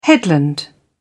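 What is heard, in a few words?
"headland", British English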